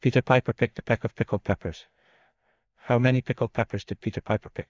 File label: male en